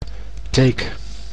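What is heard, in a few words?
"teek", Dutch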